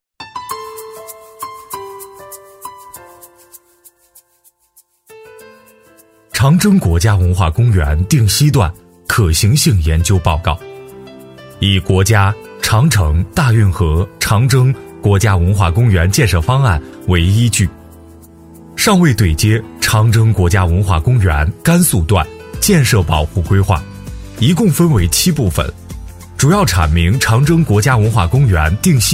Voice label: 专题片-男3-介绍讲述; 项目汇报

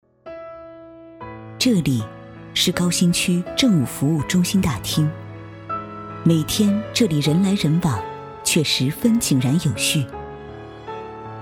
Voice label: 宣传片-女5-成熟自然

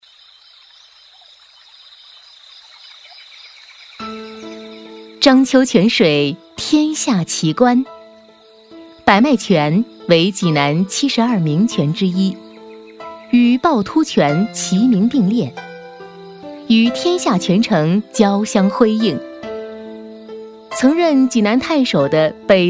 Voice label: 宣传片-女22-专业大气

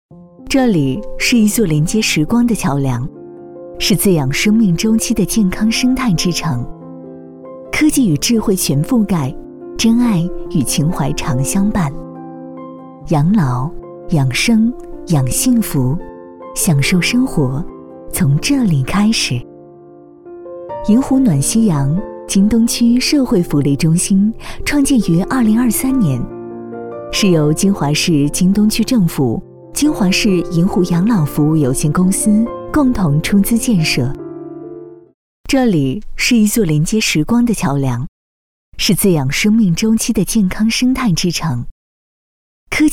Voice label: 宣传片-女15-温暖; 城市宣传

